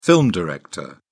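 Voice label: "film director", British English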